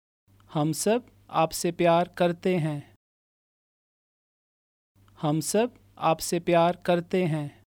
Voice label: We all love you, Urdu